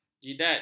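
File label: "jidat", Malay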